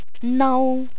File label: "nau", Cantonese; feeling